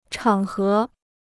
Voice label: "场合", Chinese

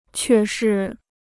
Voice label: "却是", Chinese

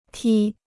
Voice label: "踢", Chinese

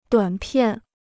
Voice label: "短片", Chinese

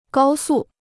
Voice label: "高速", Chinese

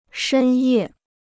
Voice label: "深夜", Chinese

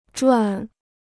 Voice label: "转", Chinese

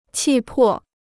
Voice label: "气魄", Chinese

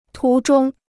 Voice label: "途中", Chinese

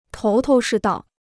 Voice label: "头头是道", Chinese

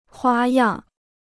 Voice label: "花样", Chinese

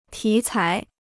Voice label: "题材", Chinese

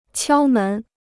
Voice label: "敲门", Chinese

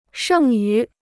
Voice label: "剩余", Chinese

